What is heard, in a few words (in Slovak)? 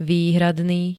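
"výhradný", Slovenské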